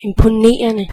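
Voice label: awesome, Finnish; gcompris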